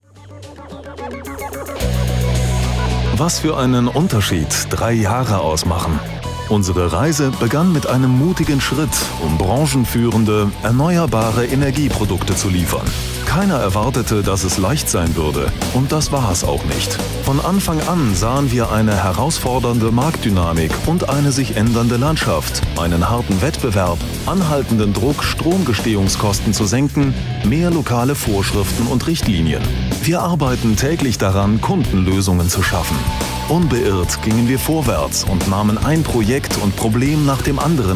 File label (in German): imagefilm renewable energy; Bornheim; Muttersprache Deutsch